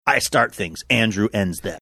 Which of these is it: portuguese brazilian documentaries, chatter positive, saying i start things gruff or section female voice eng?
saying i start things gruff